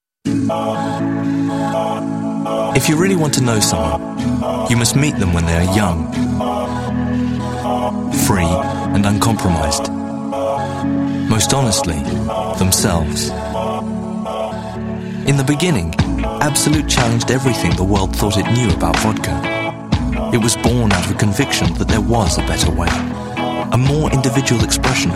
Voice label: Male Voice Over Talents Corporate Narration British